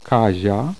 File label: voiced alveolopalatal medial, polish